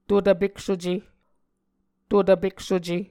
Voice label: "to tvpeksuce", Muscogee